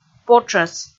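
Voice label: "потшӧс", Komi, female; kpv audio dict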